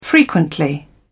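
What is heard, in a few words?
"frequently", British English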